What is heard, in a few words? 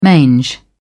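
"mange", British English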